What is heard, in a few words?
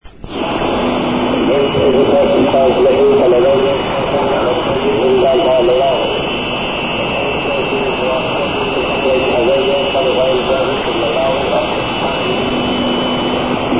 usb